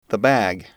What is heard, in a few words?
"bag", english